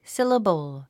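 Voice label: "syllable", English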